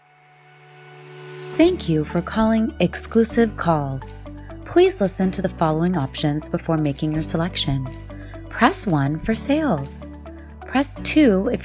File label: ivr greeting